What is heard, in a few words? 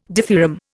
"dithyramb", Indian